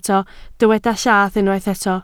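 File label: "llath" Welsh, spoken word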